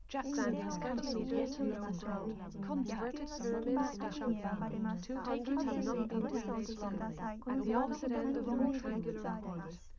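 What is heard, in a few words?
female live recording 130ms 5cm mix; SiSEC10; underdetermined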